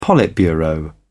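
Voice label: "politburo", British English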